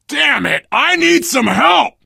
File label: help, player survivor voice biker